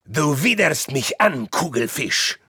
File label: domination heavy, de